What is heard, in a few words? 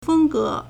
"feng1 ge2", Chinese